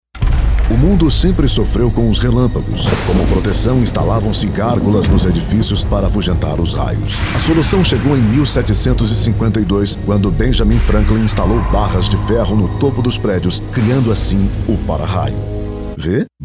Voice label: portuguese brazilian commercial